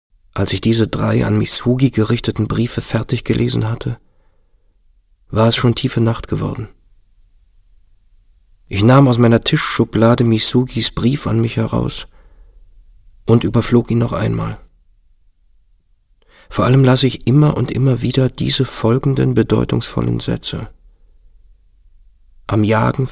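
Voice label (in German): Schauspiel Melancholisch; Fremdtake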